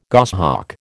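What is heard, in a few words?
"goshawk", American English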